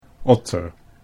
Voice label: "otter", Dutch

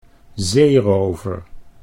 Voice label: "zeerover", Dutch

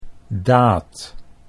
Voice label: "daad", Dutch

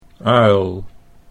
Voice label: "uil", Dutch

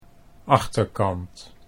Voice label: "achterkant", Dutch

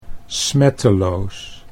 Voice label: "smetteloos", Dutch

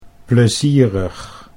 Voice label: "plezierig", Dutch

